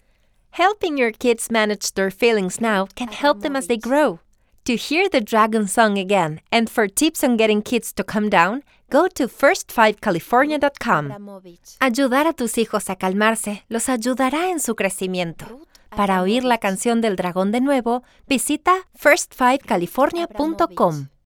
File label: Bilingual; Dragon; AVO